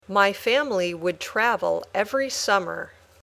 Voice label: Dictation